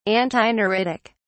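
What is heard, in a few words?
"antineuritic", British English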